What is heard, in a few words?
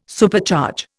"Supercharge", Indian